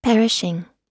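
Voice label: "perishing", American English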